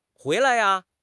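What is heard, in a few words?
"hui2 lai5", Chinese; HSK1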